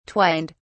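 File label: "twined", English, British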